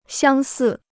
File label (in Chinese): "相似", Chinese